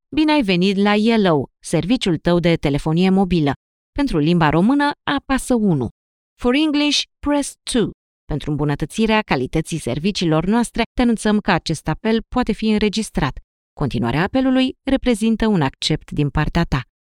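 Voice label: Romanian, Female, IVR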